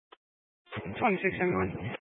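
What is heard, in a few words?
Sheriff Dispatch, Scanner audio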